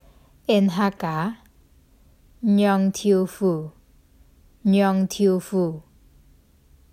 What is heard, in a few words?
"Yong Tofu 釀豆腐", Hakka; The Duke Who Didn’t: Glossary & Pronunciation Guide